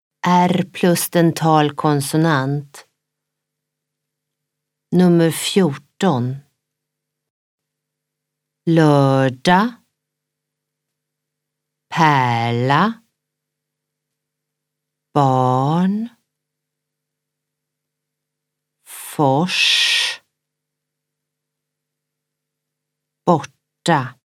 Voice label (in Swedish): R dental konsonant rd rl rn rs rt